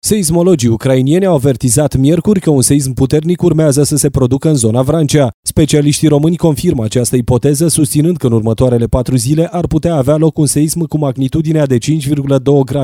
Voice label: voice stire

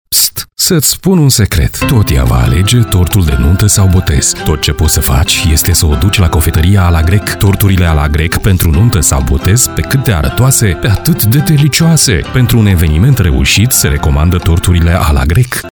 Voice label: spot; alagrec

